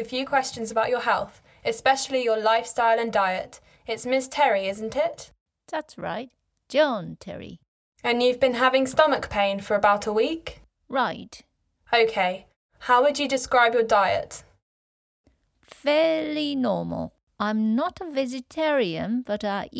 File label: Conversation; Bedside Manner Beginner